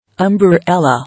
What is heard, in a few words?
"Umberella", English